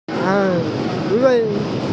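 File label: "an1wei4", Mandarin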